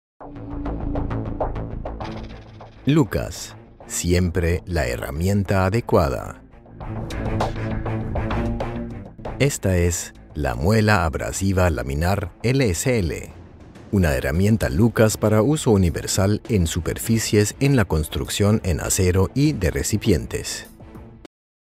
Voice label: spanish latin american e-learning

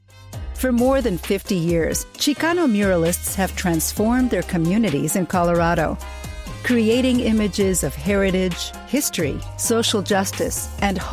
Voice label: english documentaries

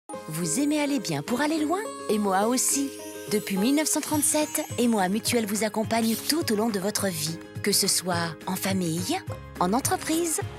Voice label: french promos

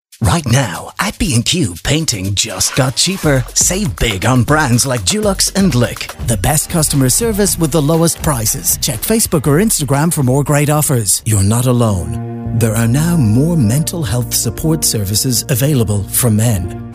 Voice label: english british commercial, British English; Démo commerciale

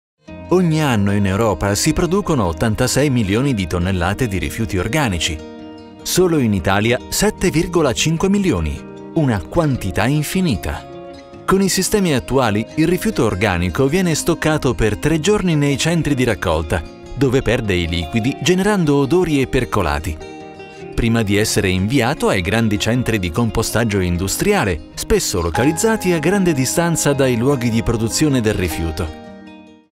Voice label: italian explainer videos

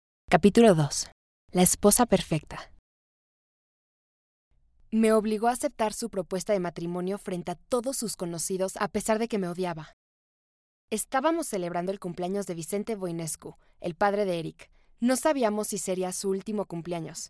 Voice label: spanish latin american audiobooks; VoiceOver-Künstlerin

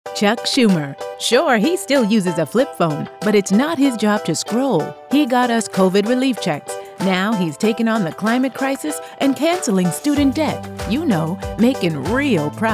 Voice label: english american political ads

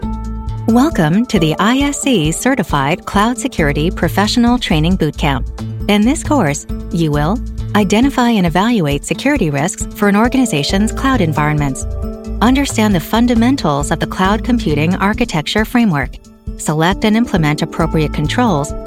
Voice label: english american e-learning

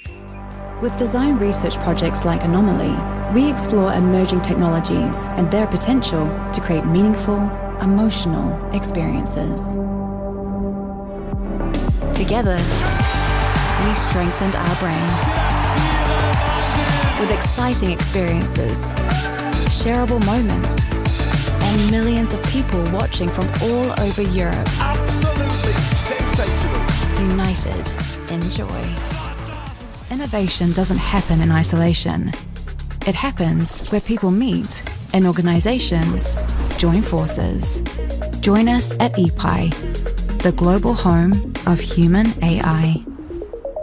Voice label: english new zealand corporate videos

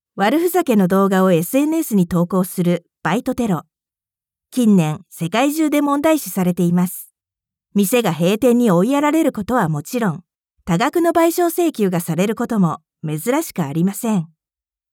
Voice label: japanese podcasts

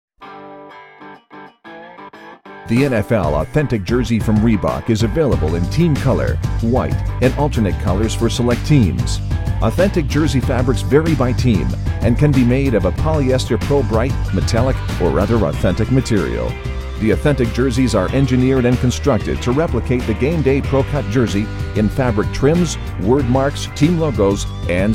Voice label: english canadian announcements

